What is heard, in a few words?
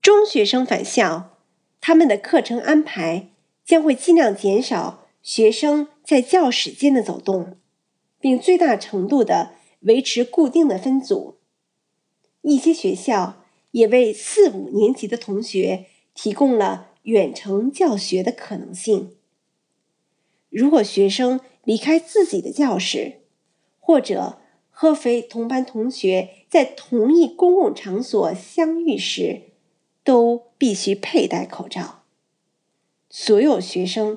Mandarin